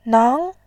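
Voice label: "nang", Mandarin, tone 2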